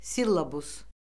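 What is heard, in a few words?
"sillabus", Azerbaijani; Orfoqrafiya Lüğəti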